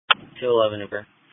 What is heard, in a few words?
New Bern Police Patrol 1 (Dispatch)